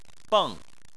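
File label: "beng" Chinese, fourth tone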